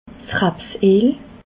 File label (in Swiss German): "raps eel", alsace